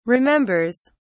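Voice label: "remembers", British English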